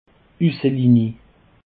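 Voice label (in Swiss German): "usselinie", Alsatian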